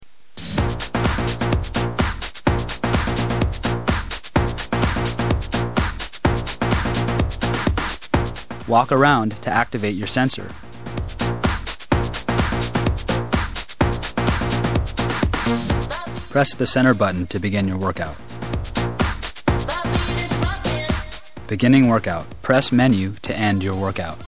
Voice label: feedback male